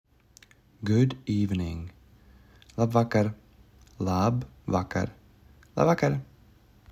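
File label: Good evening, Latvian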